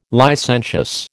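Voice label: "licentious", American English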